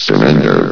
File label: "surrender", vox